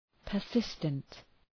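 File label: "persistent", English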